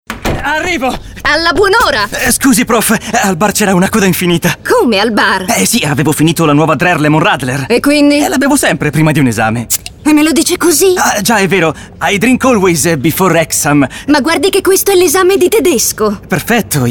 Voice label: esagitato in ritardo; drher lemon radler

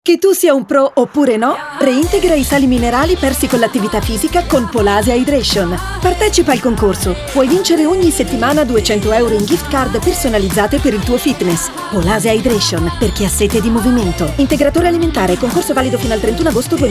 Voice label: spot radio; polase

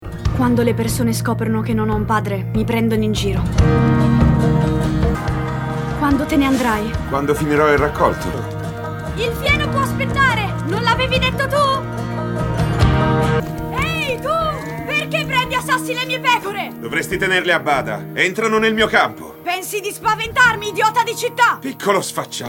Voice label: demo voce parlata bambino di 12 anni

